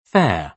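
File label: "fair", British English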